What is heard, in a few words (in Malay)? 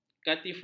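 "katifah", Malay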